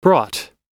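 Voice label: "brought", US English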